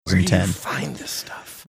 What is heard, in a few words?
whispering